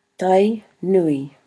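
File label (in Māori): "tai nui", Māori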